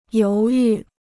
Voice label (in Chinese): "犹豫", Chinese